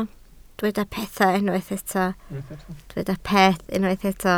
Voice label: "peth", Welsh